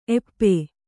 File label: "ಏಪಿ", Kannada